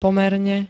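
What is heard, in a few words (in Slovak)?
"pomerne", Slovak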